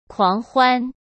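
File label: "狂欢", Mandarin Chinese; chinese-flashcards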